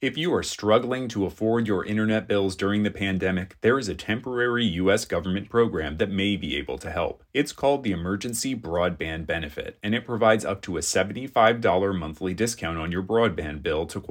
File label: tribal lands psa english; Emergency Broadband Benefit